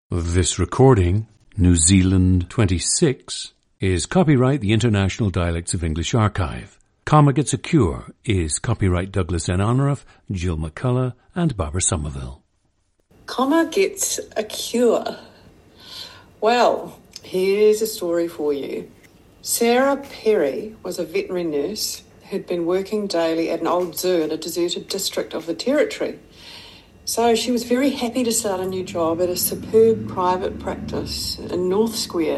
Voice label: New Zealand